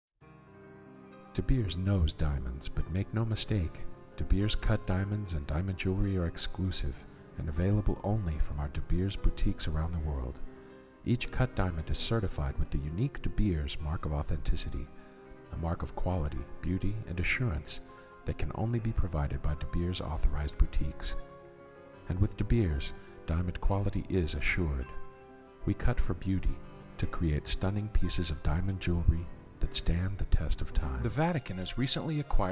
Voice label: commercial